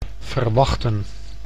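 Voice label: "verwachten", Dutch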